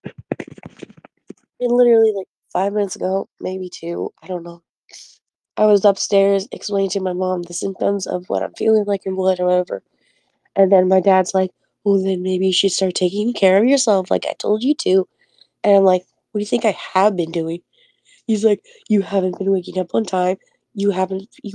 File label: voice message